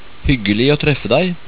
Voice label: "hyggelig" Norwegian

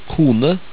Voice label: "kone" Norwegian

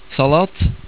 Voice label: "salat", Norwegian

